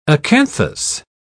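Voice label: "acanthus", English, British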